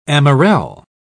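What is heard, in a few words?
"amarelle", English, British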